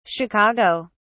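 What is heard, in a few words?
"chicago", British English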